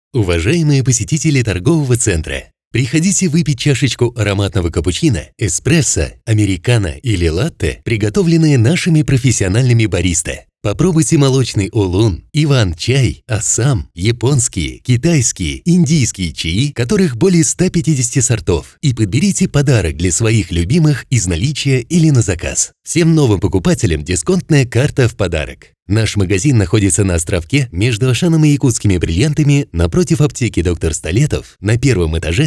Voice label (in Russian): голос с обработкой; НЕОБЫЧАЙНЫЙ ЧАЙ И КОФЕ